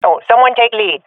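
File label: request leader, swat female; Counter Strike Model Pack; CS:GO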